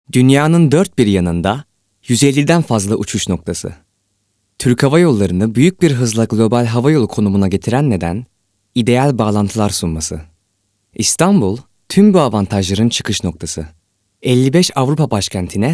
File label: male turkish corporate